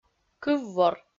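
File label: "кыввор" Komi, female; kpv audio dict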